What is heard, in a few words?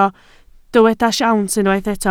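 "siawns" Welsh, spoken word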